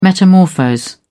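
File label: "metamorphose", English, British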